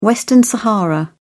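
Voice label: "western sahara", British English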